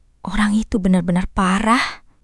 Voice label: indonesia, indonesian negative phrases

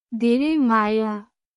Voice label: Nepali, thank you in nepali

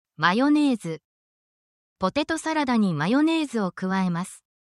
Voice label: essential japanese for ingredient

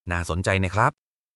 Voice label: Thai phrases; タイ旅行で必須の「伝える力」を磨く！カタカナ発音で覚える基本の100フレーズ

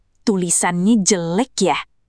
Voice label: indonesian phrases

